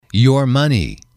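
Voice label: "money", English, male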